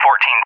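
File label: "14", English, USA; Numbers; DCS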